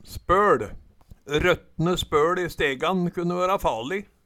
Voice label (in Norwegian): spøL, Numedalsmål